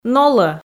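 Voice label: "нолӧ", Komi, female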